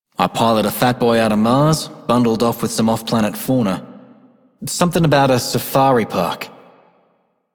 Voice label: male rail agent; Dialog; Warframe; Solaris Venus

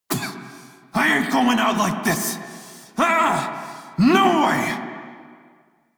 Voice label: Crew Near Death Male en; Dialog; Warframe; Railjack Crew; Red Veil

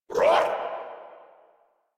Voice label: Surprised, Grineer Male; Warframe

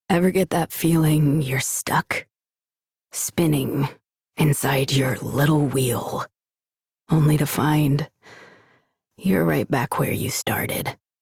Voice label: Adult, en, Dialog; Execute; Warframe; Duviri Paradox Quest

